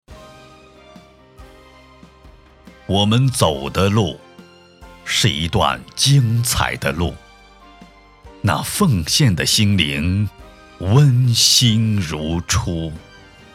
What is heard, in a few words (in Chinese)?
朗诵